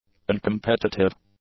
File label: "uncompetitive", English; adjectives